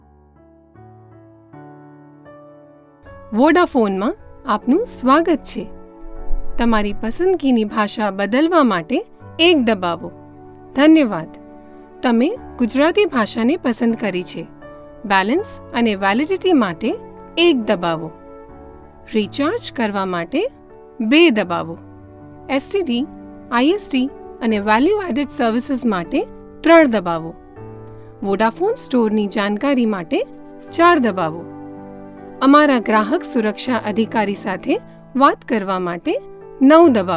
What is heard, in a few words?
IVR gujarati